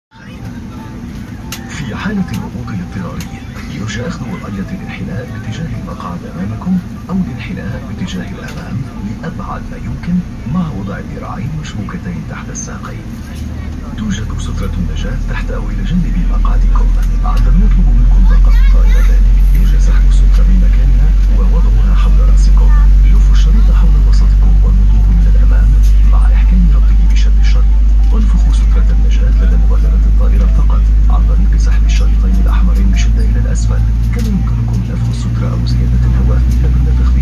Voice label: In flight announcement in Arabic